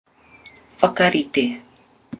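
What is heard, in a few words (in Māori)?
"whakarite", Māori word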